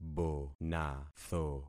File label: "bonatho", concat syllables; Affixing experiment